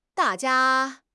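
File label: "da4 jia1", Chinese; HSK; HSK2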